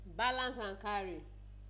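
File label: "balanceancarry", Nigerian Pidgin, female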